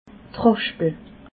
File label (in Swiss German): "raschpel", alsace